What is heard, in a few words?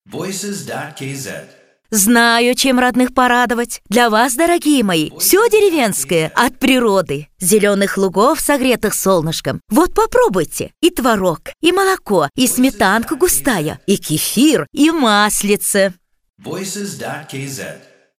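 Russian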